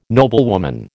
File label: "noblewoman", American